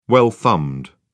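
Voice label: "well thumbed", British English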